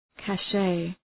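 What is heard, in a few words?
"cache", English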